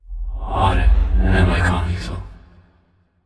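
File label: sad, voice; VO aragami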